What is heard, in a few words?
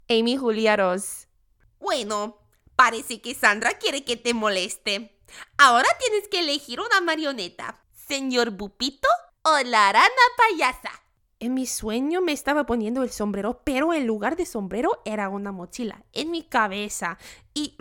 Spanish